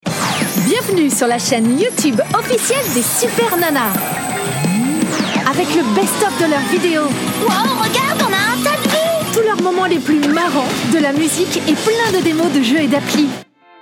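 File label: French, kids; GoLocalise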